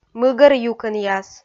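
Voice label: "мыгӧр юкӧнъяс", Komi, female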